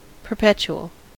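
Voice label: "perpetual", US English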